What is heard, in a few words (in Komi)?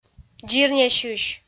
"дзирнясьысь", Komi